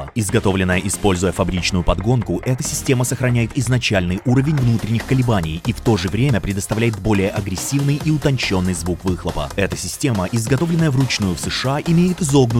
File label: Russian Voice Over Motor Sports Presentation; HG